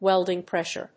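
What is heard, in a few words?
"WELDING PRESSURE", English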